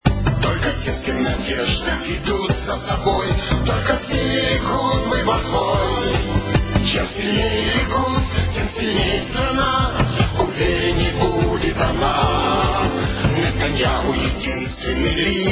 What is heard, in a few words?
jingle russian; likud